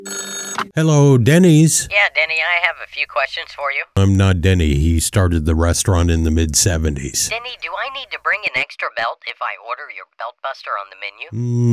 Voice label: Phone Call; Dennys